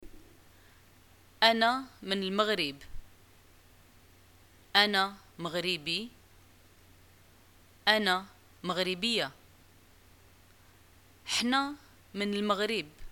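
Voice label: I'm from morocco, Moroccan arabic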